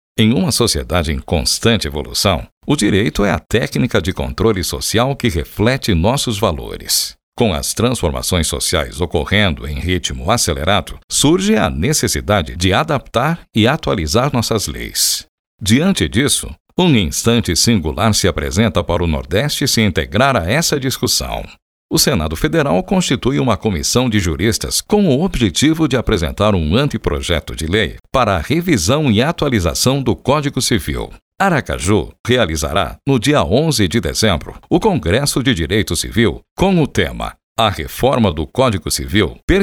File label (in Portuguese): Institucional